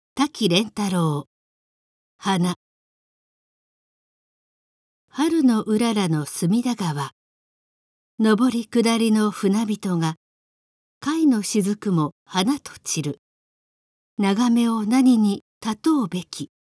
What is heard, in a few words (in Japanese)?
normal, 標準; 夏目漱石「草枕」; girl